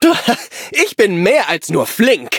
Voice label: victory, de; cookie run